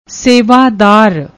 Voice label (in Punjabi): "sewadar", Punjabi; ਸੇਵਾਦਾਰ